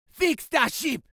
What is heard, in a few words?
Voices Jamaican Fix The Ship